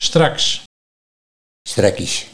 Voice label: "Strakkies", Nieuw lekkerlands dialect; straks

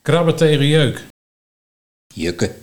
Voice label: "Jukke", dialect Nieuw lekkerlands

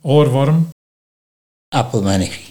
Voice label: "Appelmainnetjie", Nieuw lekkerlands dialect

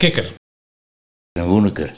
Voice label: "Woeneker", Nieuw lekkerlands dialect

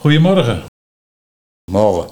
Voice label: "Môge", Nieuw lekkerlands dialect; Goeiemorgen

